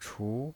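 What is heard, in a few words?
"chu", Mandarin Chinese pinyin voice, second tone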